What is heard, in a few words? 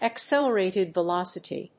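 "accelerated velocity", English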